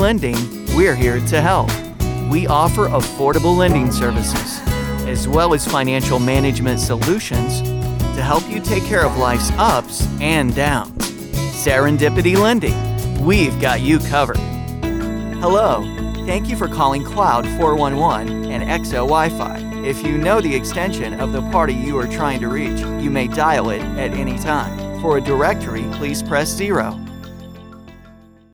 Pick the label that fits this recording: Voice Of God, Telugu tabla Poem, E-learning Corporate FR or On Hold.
On Hold